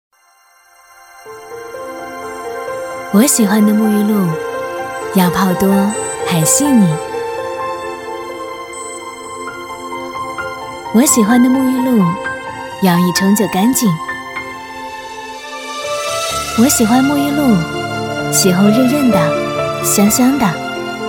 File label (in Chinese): 女,TVC,温柔细腻